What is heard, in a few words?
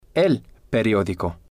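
"el Periodico", Spanish, male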